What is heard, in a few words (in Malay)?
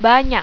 "banyak", Malaysian